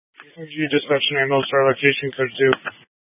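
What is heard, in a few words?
Fire Dispatch, scanner audio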